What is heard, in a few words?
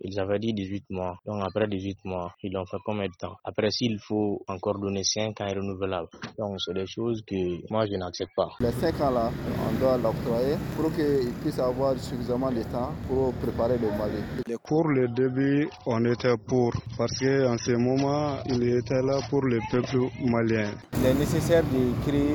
REACTION VOX POP FR; sahel; studiotamani